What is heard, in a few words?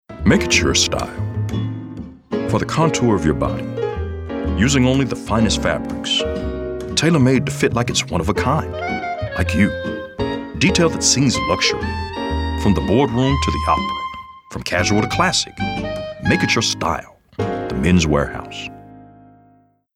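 Upscale Cool, Male Voice Actor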